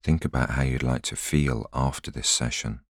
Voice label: voiceover